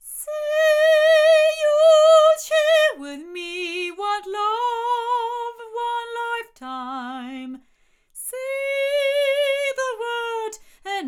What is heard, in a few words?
ADK A48 omni female, microphones